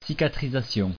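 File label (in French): "cicatrisation" French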